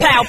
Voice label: "pow", ooh ahh